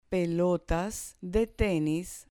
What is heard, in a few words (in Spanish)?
"pelotas de tenis", Spanish